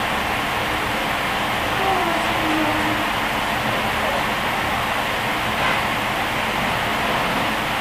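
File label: Kitchen female Voice Lonely; Occidental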